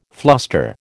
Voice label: "fluster", American

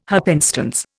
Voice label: "happenstance", Indian

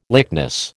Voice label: "lychnis", American

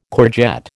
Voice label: "courgette", American

